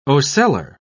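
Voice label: "ocellar", British English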